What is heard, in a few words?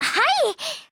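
agree, Japanese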